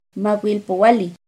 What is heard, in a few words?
"cien macuilpohualli", Náhuatl; NAHUATLAHTOLLI